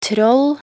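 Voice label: "troll", Norwegian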